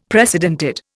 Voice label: "precedented", Indian; Dictionary

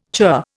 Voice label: "churr", Indian

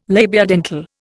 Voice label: "labiodental", Indian

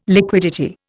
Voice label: "liquidity", Indian

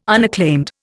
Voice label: "unacclaimed", Indian

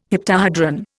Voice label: "heptahedron", Indian

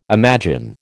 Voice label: "imagine", American

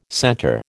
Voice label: "center", American

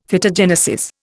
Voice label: "Phytogenesis", Indian; Dictionary

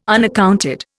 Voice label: "unaccounted", Indian

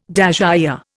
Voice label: "dasyure", Indian

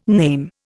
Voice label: "name", Indian

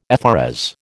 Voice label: "FRS", American

